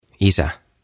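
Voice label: "Isä", Finnish; Father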